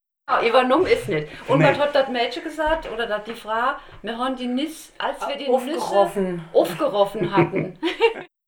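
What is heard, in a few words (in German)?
offgeroff, O-Ton Hunsrück; Emmelshausen / Liesenfeld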